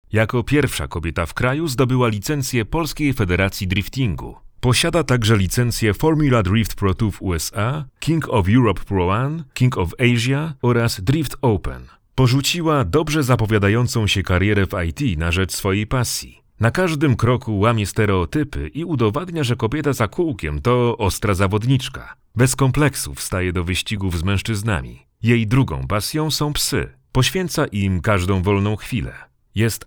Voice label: polish tv shows; Ein professioneller polnischer Sprecher